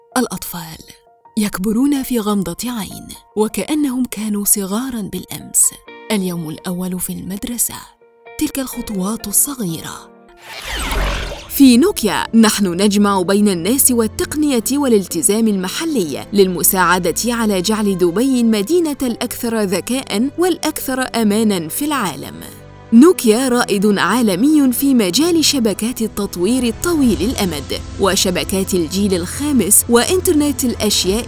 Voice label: arabic commercial

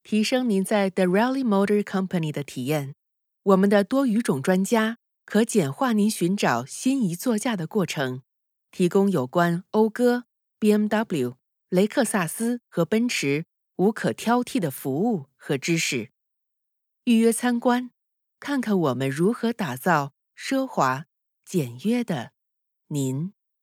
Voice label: chinese mandarin corporate videos

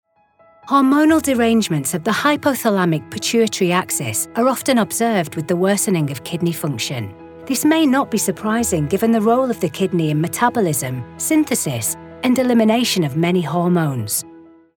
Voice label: english british medical narration; northern (Lancashire/Manchester/Liverpool)

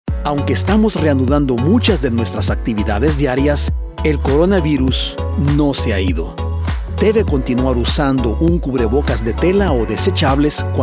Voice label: spanish latin american corporate videos

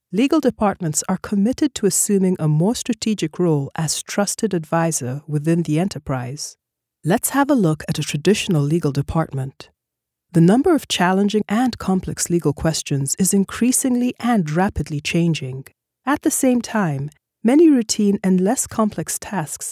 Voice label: english african corporate videos

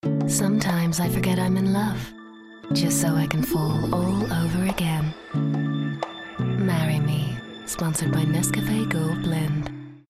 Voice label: english irish promos

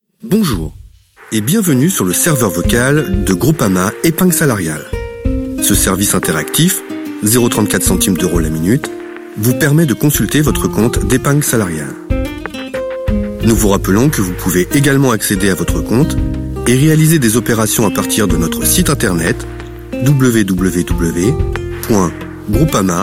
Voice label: french ivr

